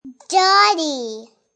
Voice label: Kid Says Doggie, Kid talking; Voice